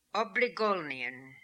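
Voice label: "Obligolnian", pronunciations; Fourth Way; Beelzebub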